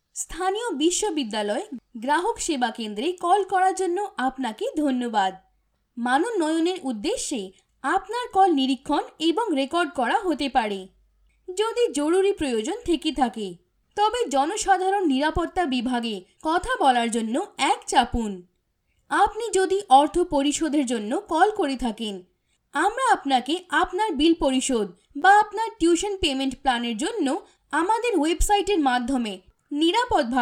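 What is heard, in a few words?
Female, Bengali India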